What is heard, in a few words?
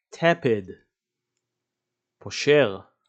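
"tepid" English, "פושר" Hebrew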